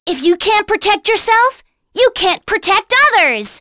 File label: Vox Skill, en; kingsraid